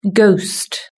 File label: "ghost", british english